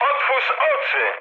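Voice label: player friendly fire, mood high, Polish speech; War Thunder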